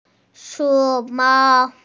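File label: "panda", Mandarin Chinese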